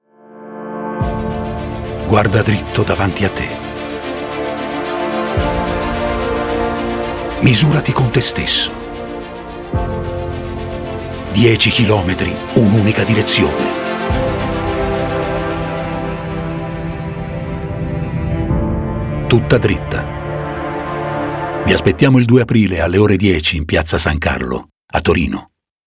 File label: spot; TUTTADRITTA